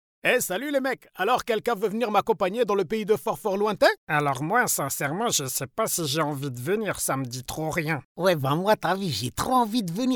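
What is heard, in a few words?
French, France, male, voice over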